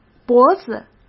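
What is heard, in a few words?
"脖子", Mandarin Chinese; hsk; chinese-flashcards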